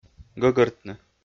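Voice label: "гӧгӧртны", Komi, male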